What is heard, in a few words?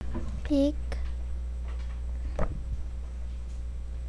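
"pick", spoken word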